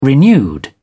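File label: "renewed", English, British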